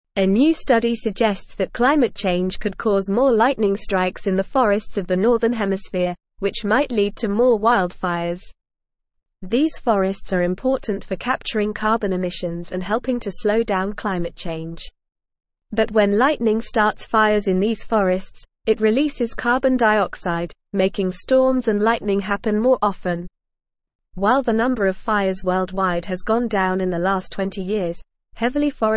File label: English Upper Intermediate NORMAL Reading